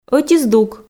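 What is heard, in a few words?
"ӧти здук", Komi, female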